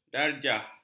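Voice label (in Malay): "darjah", Malay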